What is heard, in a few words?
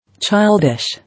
"Childish", English